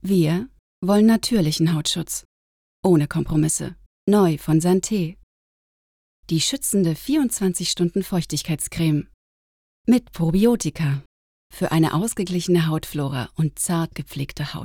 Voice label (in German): Werbung